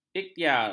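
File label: "ikhtiar", Malay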